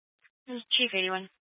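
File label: Incident Tactical 1, Scanner audio recording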